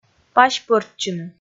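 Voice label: "пась пӧрччыны", Komi, male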